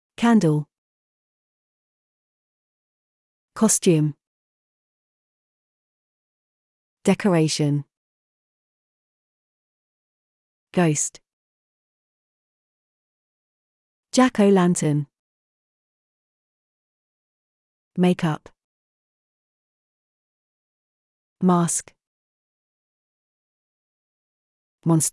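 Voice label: British; halloween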